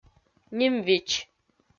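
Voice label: "ним-видз", Komi-Zyrian, female; kpv audio dict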